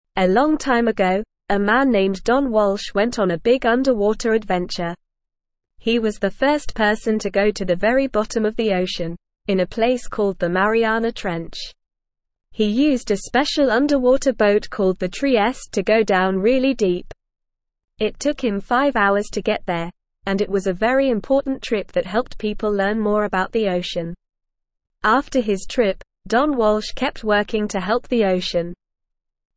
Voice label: English Lower Intermediate NORMAL Reading; Deep Dive Don Walsh Explores the Oceans Depths; English Newsroom

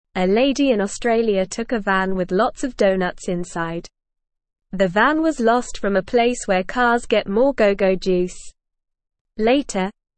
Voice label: English Beginner NORMAL Reading; English Newsroom

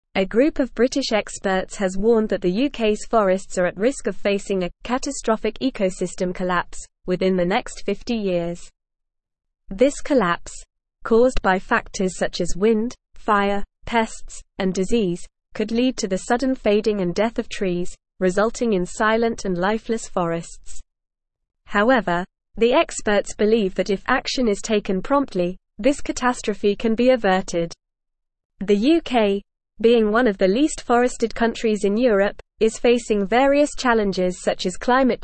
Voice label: English Newsroom Advanced NORMAL Reading; UKs Forests Facing Catastrophic Collapse Experts Warn

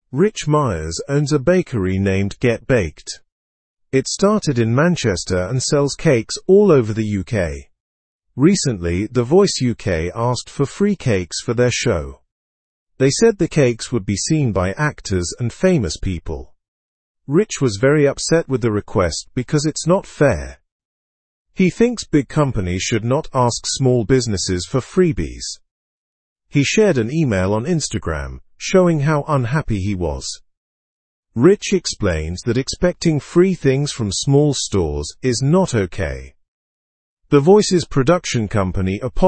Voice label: english through news lower intermediate; bakery angered by the voice s freebie request

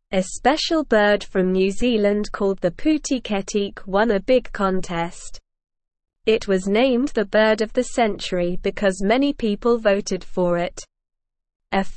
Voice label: English Lower Intermediate SLOW Reading; English Newsroom; John Oliver helps funny bird win contest

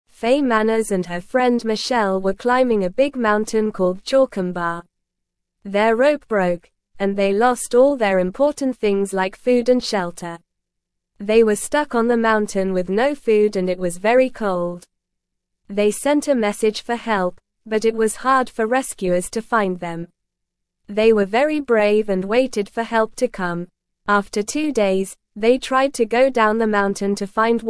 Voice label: English Beginner NORMAL Reading; Fay and Michelle Get Lost on Mountain Get Help

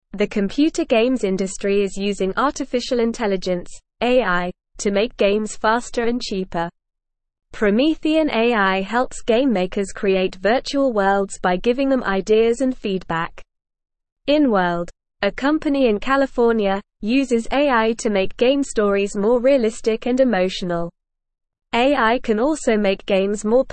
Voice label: English Lower Intermediate NORMAL Reading; AI Makes Games Better and More Fun

